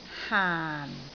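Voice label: "haan4", Cantonese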